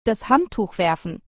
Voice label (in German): das Handtuch werfen, German; to throw the towel